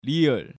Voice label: "Rieul", Korean